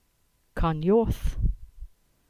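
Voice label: "Carnyorth", English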